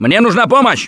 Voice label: Ledge Hang Start, russian, Survivor Voice; Gambler